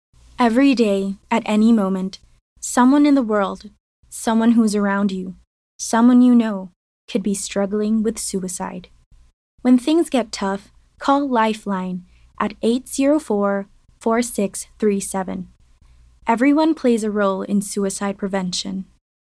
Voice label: English (Concerned, Hopeful)

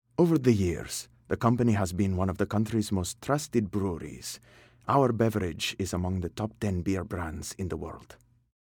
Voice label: English - Spanish

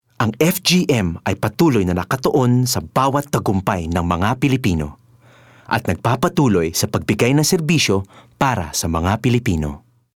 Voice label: Tagalog (Professional, Sincere)

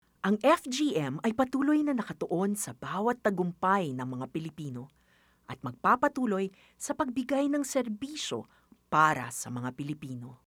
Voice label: Tagalog, Professional, Sincere

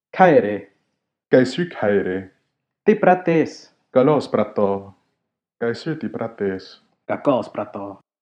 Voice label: Athenian Convo

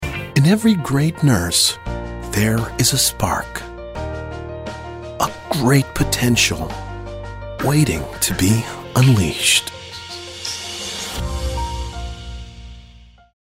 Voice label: English (Warm, Tender)

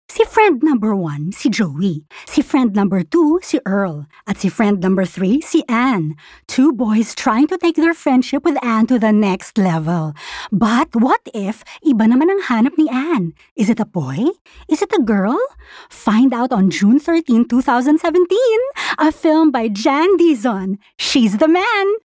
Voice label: Taglish (Engaging, Fun)

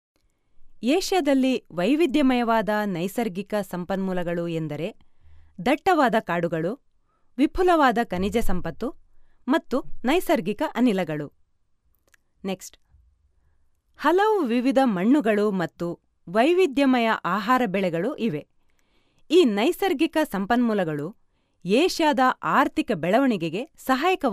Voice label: Female Kannada

